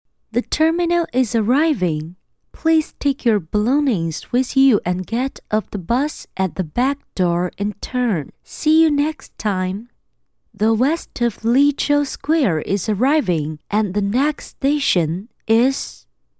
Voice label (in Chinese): 女, 解说旁白, 英文报站